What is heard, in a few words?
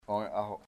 mouth, chamacoco